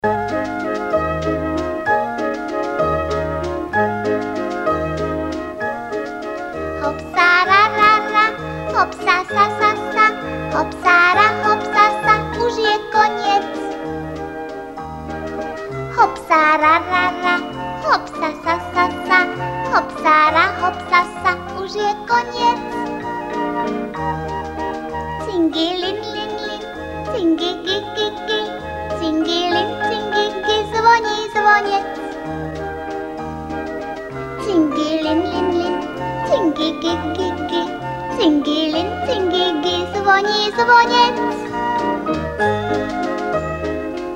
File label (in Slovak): sms hlásky cz